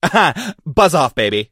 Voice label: angelo hurt

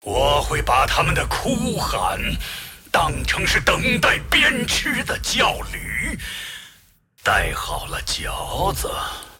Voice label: move, zh

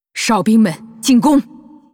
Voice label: warcry; Tyrande

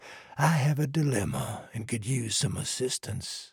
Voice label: trader male like quest offer

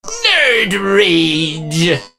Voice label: meeple hurt

